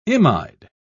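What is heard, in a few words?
"imide", British English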